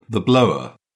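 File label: "blower", British English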